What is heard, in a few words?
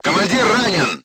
voice message commander wounded, crew tanks russian